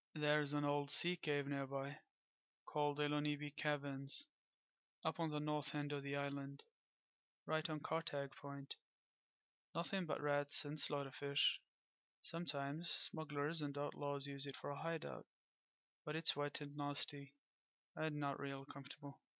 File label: breton sample, voice auditions